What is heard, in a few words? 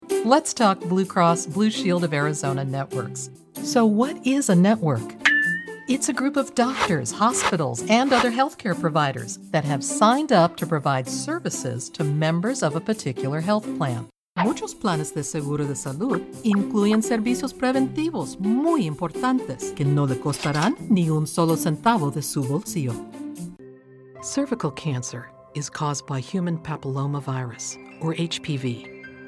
Bilingual Medical